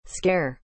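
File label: "scare", English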